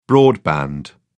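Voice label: "broadband", British English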